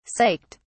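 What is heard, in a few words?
"psyched", British English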